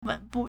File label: "wen3bu4", Chinese